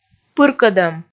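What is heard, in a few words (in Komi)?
"пыркӧдӧм", Komi, female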